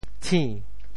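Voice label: "tshi~2", Teochew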